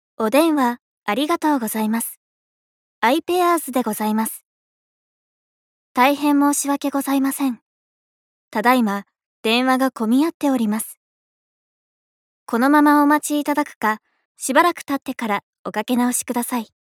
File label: narrator